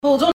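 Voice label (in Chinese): "腐乳", Taiwanese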